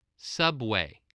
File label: "subway", voice spelling